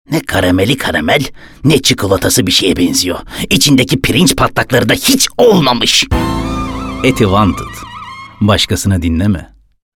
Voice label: animatik karakter, seslendirme

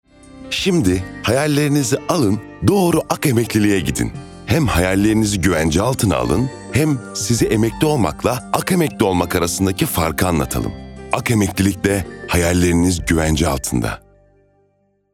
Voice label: enerjik esprili

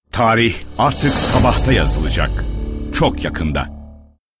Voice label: guclu otoriter; seslendirme

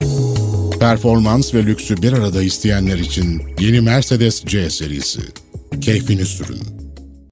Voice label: kurumsal imaj, seslendirme

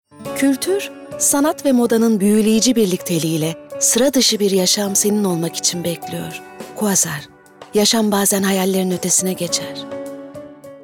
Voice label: sicak samimi

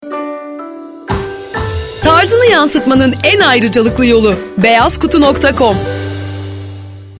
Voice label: Dinamik; seslendirme

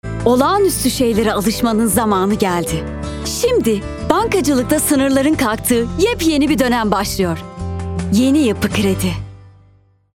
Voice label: enerjik tempolu, seslendirme

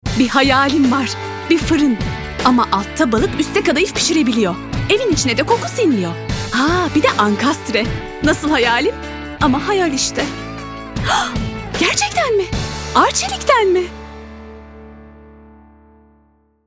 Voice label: enerjik esprili, seslendirme

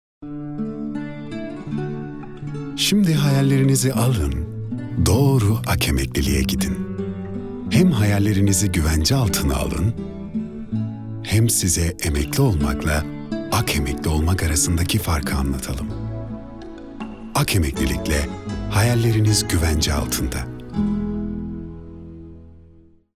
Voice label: sicak samimi

